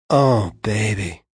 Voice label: Oh Baby, Male; Sex; VoiceBox